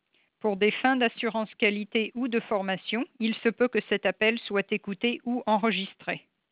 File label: recording French